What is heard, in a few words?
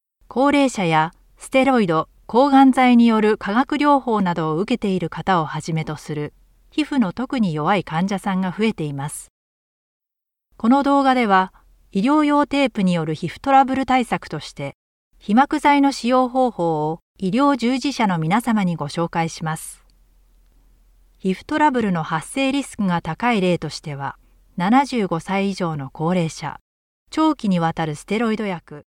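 Japanese female elearning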